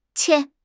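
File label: "qian1", Mandarin Chinese; HSK2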